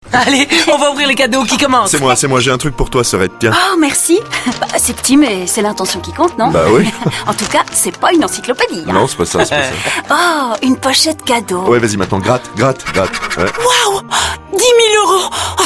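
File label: French, female, character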